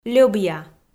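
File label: "льӧбъя" Komi, female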